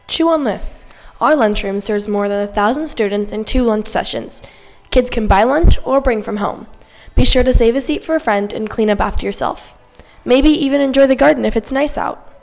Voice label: Cafeteria Narration